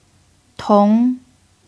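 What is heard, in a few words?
"tóng", Mandarin Chinese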